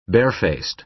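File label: "barefaced", American English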